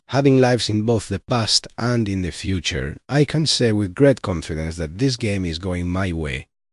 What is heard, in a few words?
happy, speech; balam; fertig classic games